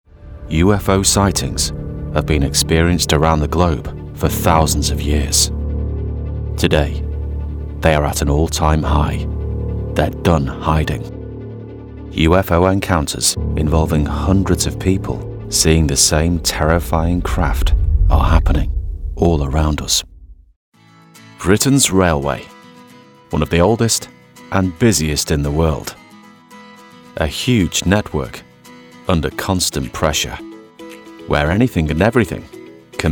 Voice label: Documentary